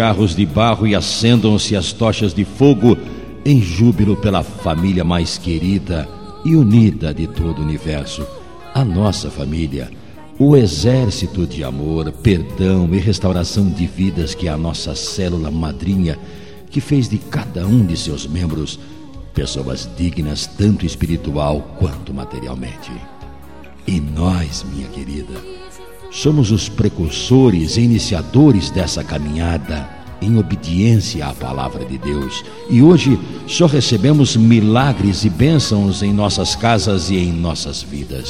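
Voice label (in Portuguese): Evangélica Bodas de Prata, male, telemensagens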